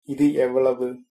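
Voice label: how much, tamil, male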